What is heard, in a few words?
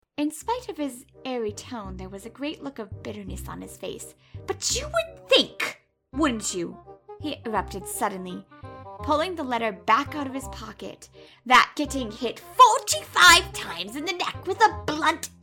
foreigner